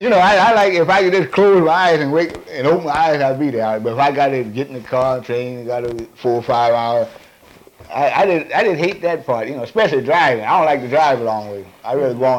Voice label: Conversation with 41 year old black male Detroit Michigan, american english dialect